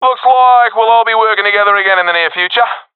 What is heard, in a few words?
round won no casualities, professional epic; vo; Counter Strike Model Pack; CS:GO